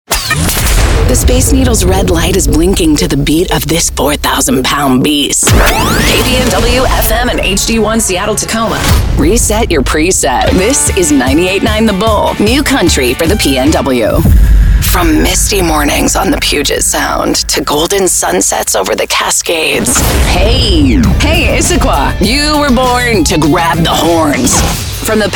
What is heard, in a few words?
english american radio imaging